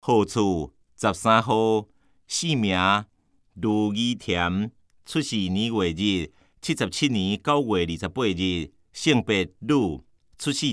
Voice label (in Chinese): 台語, 有聲公報; 市議員